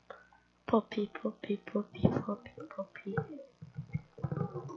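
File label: "puppy", spoken word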